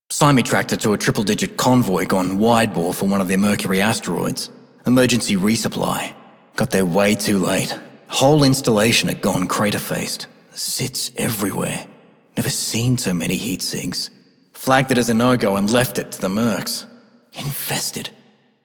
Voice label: male rail agent; Dialog; Warframe; Solaris Venus

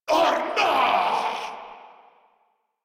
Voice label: Surprised, Grineer Male; Warframe

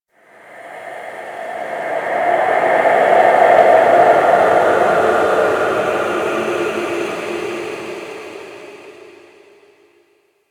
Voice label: Whisper; Ambience; Interior; Warframe; Orokin

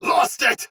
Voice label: Sight Lost Shout Soldier Sword Agent Male, Battle; Sinecure

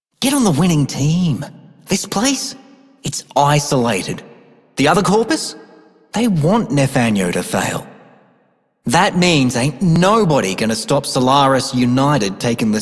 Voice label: Male Scum, Male Two, en; Dialog; Warframe; Solaris Venus

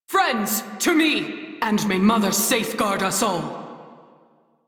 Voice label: Crew Near Death, Female; Dialog; en; Warframe; Railjack Crew; New Loka

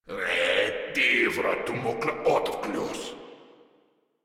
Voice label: Patrol, Grineer Male; Warframe

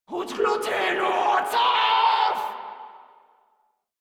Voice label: Player Spotted Grineer Female, Barks, Battle; Warframe

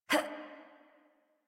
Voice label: Adult Operator Jump, en; Warframe; New War

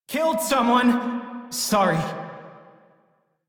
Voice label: Crew Enemy Defeat, Male, en; Dialog; Warframe; Railjack Crew; Perrin Sequence

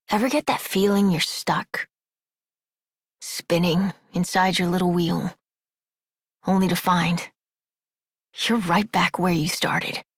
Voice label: Adult, en, Dialog

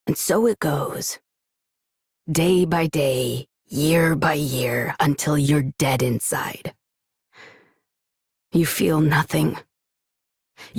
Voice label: Adult, en, Dialog; Execute; Warframe; Duviri Paradox Quest

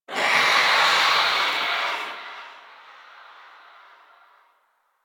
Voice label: Whisper Pain; Enemies; Stalker Acolyte

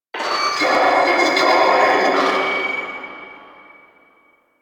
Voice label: Vocal Quest Loudspeaker, Town Escape

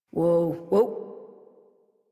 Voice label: Horse Stop Walk Adult; Warframe; Duviri

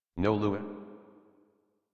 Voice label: Adult, en, Dialog; Cave; Warframe; Duviri Paradox Quest

